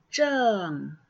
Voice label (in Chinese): 正 小聲